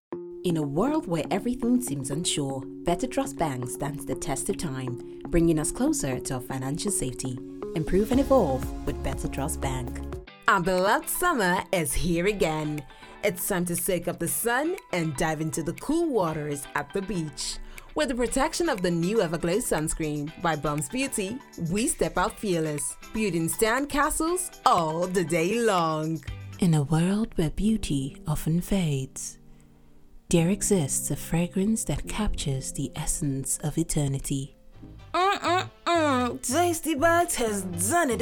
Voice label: Commercial Voiceover, Nigerian female Voiceover artist; based in Nigeria, working out of my cozy home studio